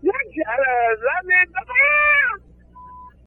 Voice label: gibbrish, voice; love jello love it buckawy